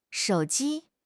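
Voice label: "shou3 ji1", Chinese; HSK; HSK2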